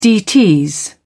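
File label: "dts", British English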